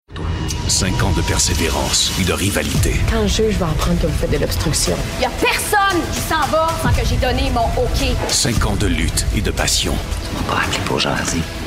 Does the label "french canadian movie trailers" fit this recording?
Yes